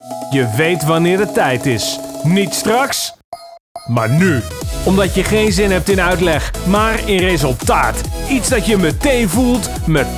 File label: commercial